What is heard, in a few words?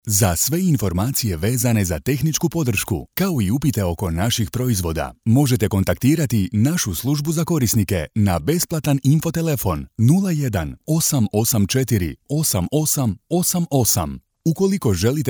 IVR